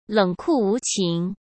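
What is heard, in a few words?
"冷酷无情", Mandarin Chinese; hsk; chinese flashcards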